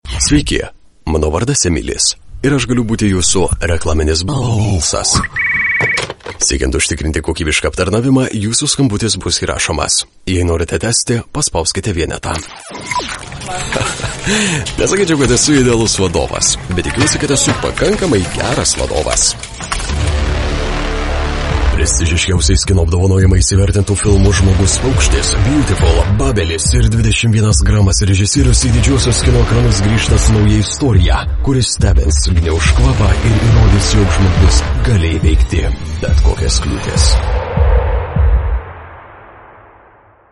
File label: Lithuanian M Showreel